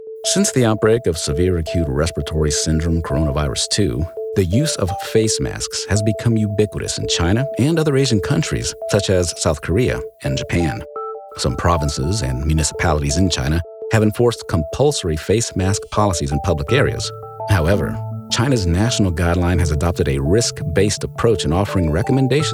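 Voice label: American medical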